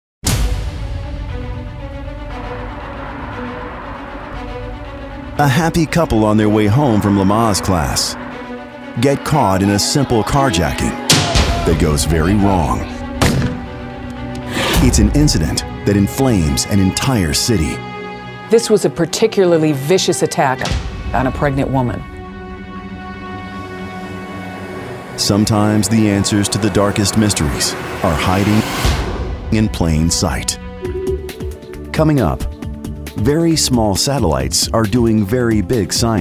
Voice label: Documentary